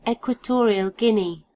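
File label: "equatorial guinea", British English; gcompris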